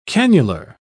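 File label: "cannular", British English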